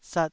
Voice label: "sat2", taiwanese syllable

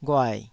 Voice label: "ghuai3", Taiwanese syllable

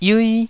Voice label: "yiui1", Taiwanese

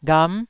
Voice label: "gham9", Taiwanese syllable

